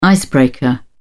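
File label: "icebreaker", British English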